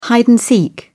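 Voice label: "hide and seek", English, British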